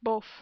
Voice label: "beauf", French